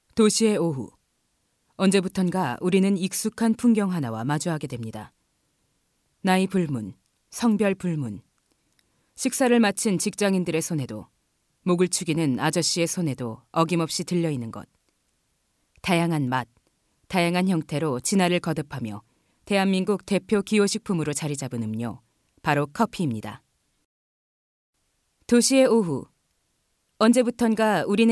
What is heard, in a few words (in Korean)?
나래이션 톤다운 노멀